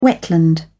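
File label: "wetland", British English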